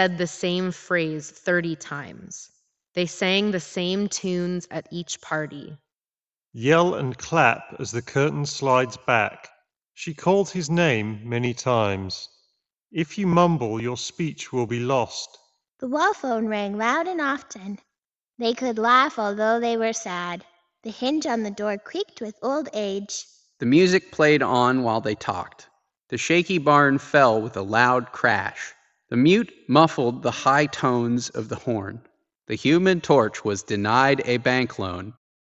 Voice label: Reverb microphone sample; JLAB Nightfall Wireless; gaming headset